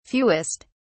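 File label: "fewest", English, British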